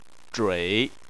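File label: "zhui" Chinese, third tone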